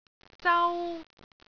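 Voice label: "zau1", Cantonese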